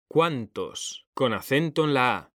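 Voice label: "CUÁNTOS", Spanish; mekanta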